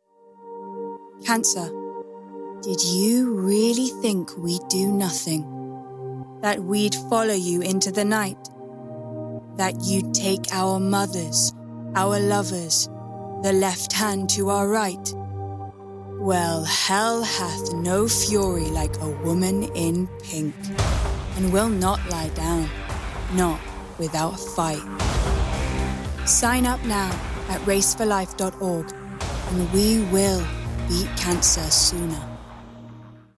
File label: Charity Commercial; Race For Life